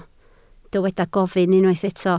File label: "gofyn", Welsh